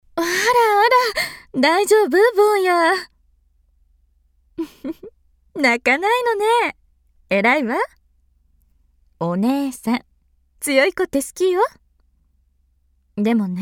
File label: oneesan